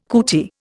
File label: "cootie", Indian